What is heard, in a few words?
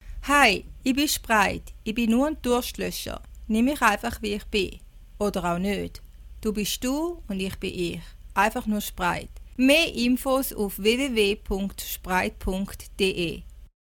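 Swiss German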